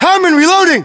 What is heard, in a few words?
inform reloading, battlechatter, English, US